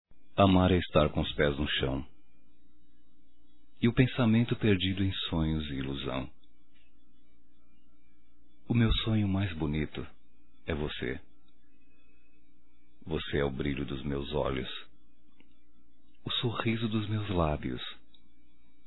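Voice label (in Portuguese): voz Romântica, masculino; Telemensagens; Só Voz; Telemensagens 2017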